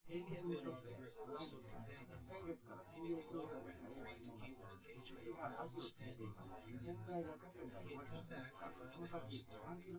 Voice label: male synth conv

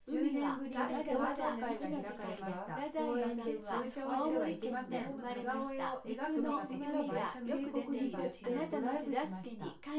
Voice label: female synthconv 250ms 5cm mix; SiSEC10; underdetermined

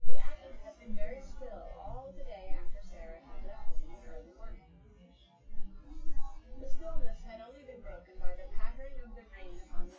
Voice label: female synth conv 1m; SASSEC07

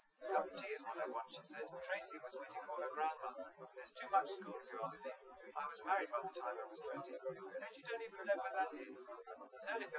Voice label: male liverec 250ms 5cm

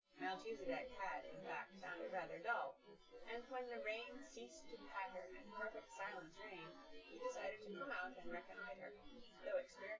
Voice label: female synth conv; SiSEC10; underdetermined

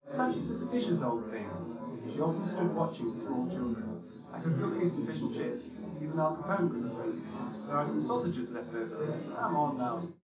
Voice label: male; SiSEC11; underdetermined

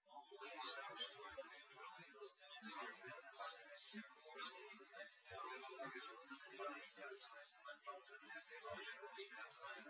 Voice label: male; SiSEC08; SiSEC underdetermined

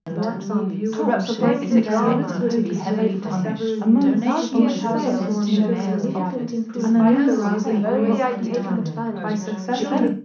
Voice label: female mix; SiSEC11; underdetermined

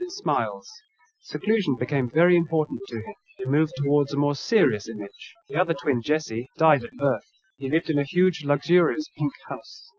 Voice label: male inst src; SiSEC11; underdetermined

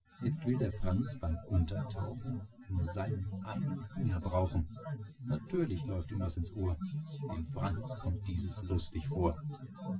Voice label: male; SiSEC08; SiSEC underdetermined

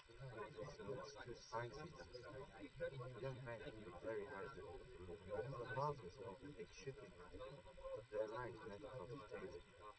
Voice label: male, live rec, 130ms; SiSEC11; underdetermined

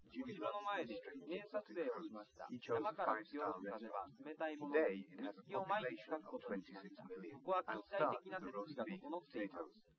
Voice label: male liverec 130ms 5cm; SiSEC08; SiSEC underdetermined

